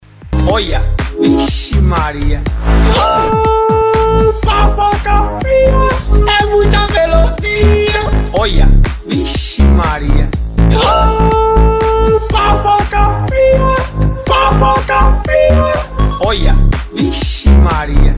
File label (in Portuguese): baiano autotune; oia vixi maria